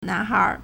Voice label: "nan2hai2", Chinese

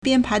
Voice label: "bian1pai2", Chinese

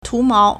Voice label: "tu2mou2", Chinese

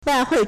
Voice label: "wai4hui4", Chinese

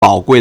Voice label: "bao3gui4", Chinese

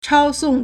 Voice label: "chao1song4", Chinese

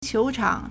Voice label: "qiu2 chang3", Chinese

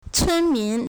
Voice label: "cun1 min2", Chinese

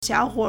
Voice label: "xiao3huo3r", Chinese

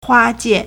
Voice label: "hua1 jian4", Chinese

